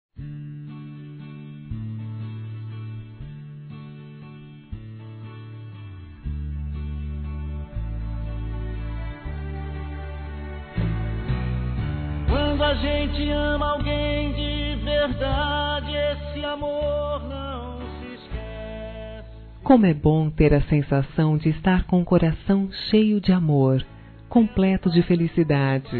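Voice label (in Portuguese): GERAL, female, Dia Dos namorados; Telemensagens 2017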